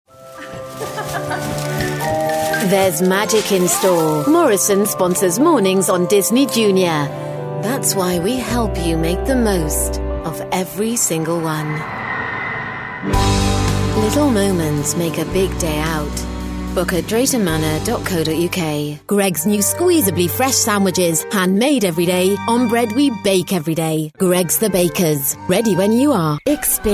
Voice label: london smooth commercial